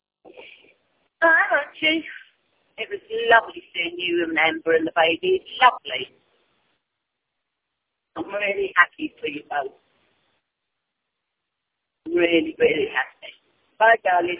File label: voicemail